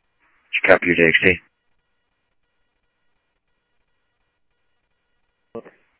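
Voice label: Mesa Police Department, scanner audio trunk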